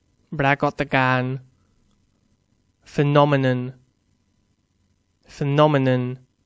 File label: "phenomenon", English; Noun